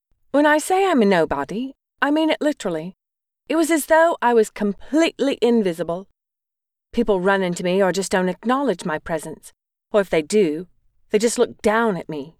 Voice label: British accent